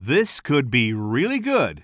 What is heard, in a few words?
commentator